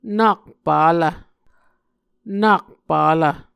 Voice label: "nak palv", Muscogee